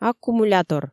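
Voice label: "аккумулятор", Komi, female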